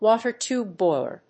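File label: "WATER TUBE BOILER", English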